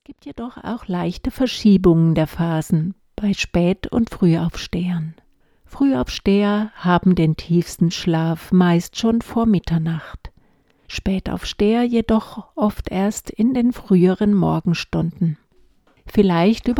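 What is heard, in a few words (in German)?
Hörprobe ohne Musik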